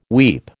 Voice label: "weep", American